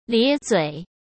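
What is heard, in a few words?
"咧嘴" Mandarin Chinese; hsk; chinese-flashcards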